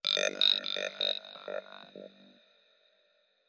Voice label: outerworld vox number 6, Robotic or Stuttery or Spooky